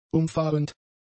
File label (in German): "umfahrend", German; adjectives